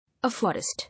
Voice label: "Afforest", English